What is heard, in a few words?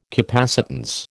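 "capacitance", American English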